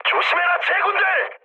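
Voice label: Korean near waypoint mood high, speech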